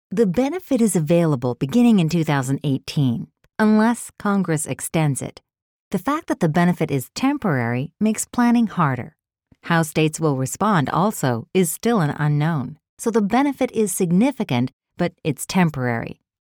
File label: Conversational